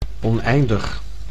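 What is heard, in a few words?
"oneindig", Dutch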